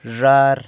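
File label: "zhar", vase, San Lucas Quiaviní Zapotec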